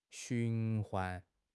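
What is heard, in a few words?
"循环", Mandarin Chinese; HSK6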